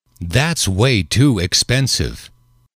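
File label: that is way too expensive, English, male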